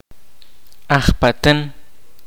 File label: "Religious society", kaqchikel